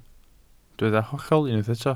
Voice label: "hollol" Welsh

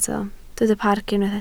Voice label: "parc", Welsh

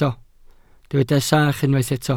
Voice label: "sach", Welsh

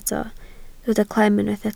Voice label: "clem", Welsh

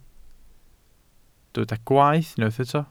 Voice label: "gwaeth", Welsh

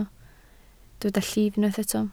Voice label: "llif", Welsh

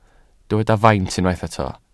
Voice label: "faint", Welsh

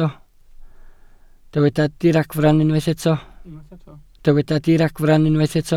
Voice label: "diragfarn" Welsh

